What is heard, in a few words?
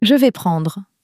"je vais prendre", french; flashcards